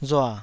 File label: "rua3", taiwanese